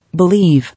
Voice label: "Believe", English